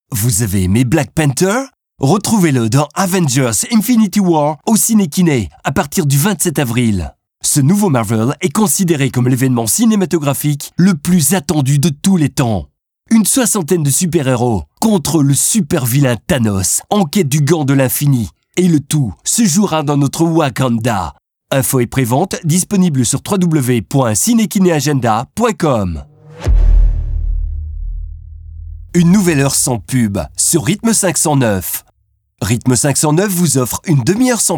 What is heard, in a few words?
French